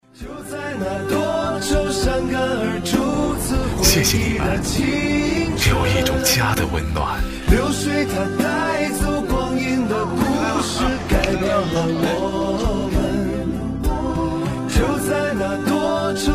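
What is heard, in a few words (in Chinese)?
国语 男声 微电影旁白 欢快 温暖 低沉 大气浑厚磁性 沉稳 娓娓道来; 家的港湾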